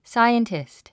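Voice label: "scientist", English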